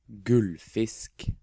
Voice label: "Gullfisk", Norwegian

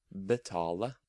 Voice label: "Betale", Norwegian

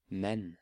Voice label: "Men", Norwegian

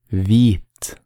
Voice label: "Hvit", Norwegian

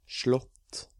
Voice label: "Slott", Norwegian

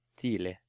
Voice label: "Tidlig", Norwegian

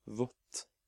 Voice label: "Vott", Norwegian

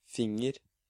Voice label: "Finger", Norwegian

